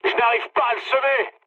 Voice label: aircraft damaged mood med, speech fr; War Thunder